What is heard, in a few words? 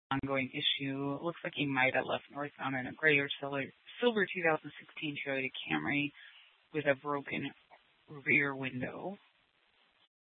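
Police Department Dispatch; Mesa PD; 4 Superstition Dispatch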